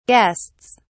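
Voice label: "guests", British English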